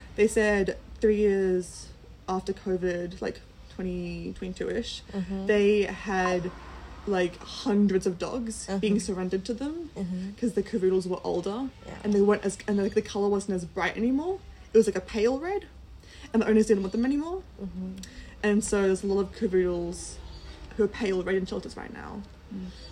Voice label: Interview